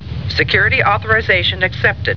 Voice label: welcome, LCARS Audio